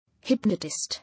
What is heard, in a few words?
"Hypnotist", English